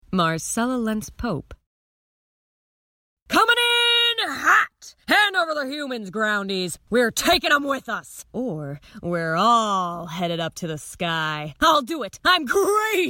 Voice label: animation; Innovative LA